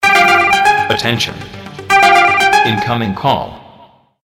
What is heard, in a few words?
attention incoming call male voice